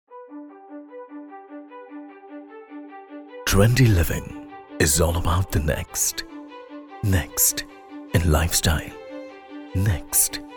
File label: English Walkthrough